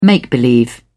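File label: "make-believe", British English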